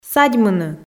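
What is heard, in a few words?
"садьмыны", Komi, female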